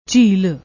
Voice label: "jheel", Punjabi